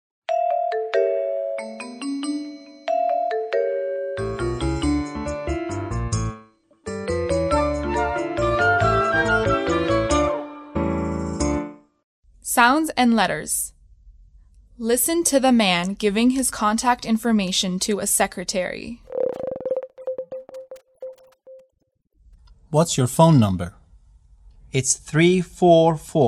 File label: Voice of conversation the English